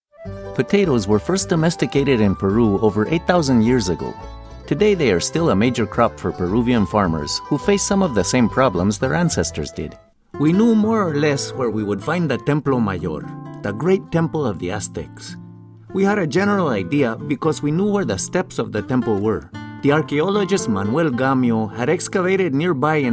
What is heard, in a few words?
eLearning Hispanic Accented English